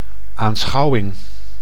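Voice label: "aanschouwing", Dutch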